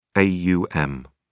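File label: "aum", British English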